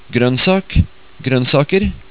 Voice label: "gronnsak", Norwegian